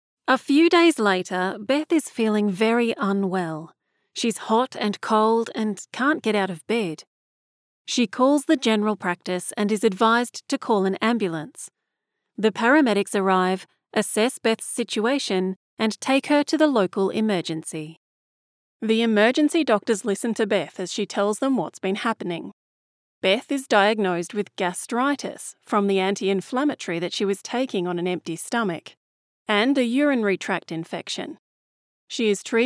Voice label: Ageism Excerpt, medical narration